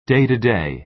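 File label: "day-to-day", American English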